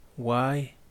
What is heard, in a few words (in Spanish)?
hermano o hermana menor de mujer, Yaqui